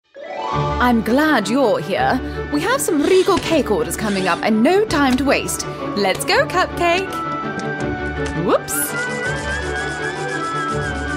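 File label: english british video games, female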